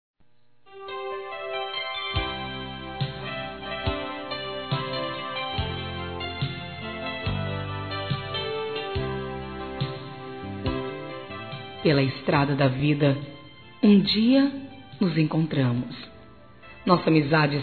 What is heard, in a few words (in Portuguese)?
SAUDADES GERAL, Gospel, fem; Telemensagens; Telemensagens 2017